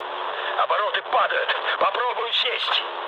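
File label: Russian damaged engine mood low, speech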